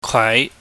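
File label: "kwaai", Cantonese, mid rising tone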